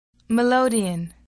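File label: "melodion", English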